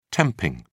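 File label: "temping", British English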